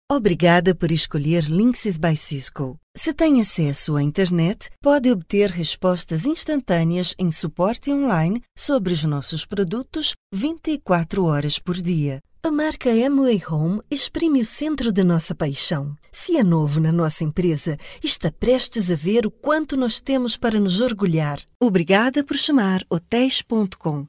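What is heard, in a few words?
European Portuguese General; GoLocalise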